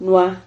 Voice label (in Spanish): "nua", Silabas